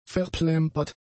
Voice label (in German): "verplempert", german; adjectives